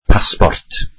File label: welsh passport